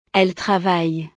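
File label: "elles travaillent", French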